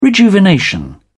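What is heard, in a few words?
"rejuvenation", British English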